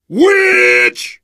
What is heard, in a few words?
warn witch, biker voice; source engine; left 4 dead 2; survivor